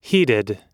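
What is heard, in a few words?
"heeded", American English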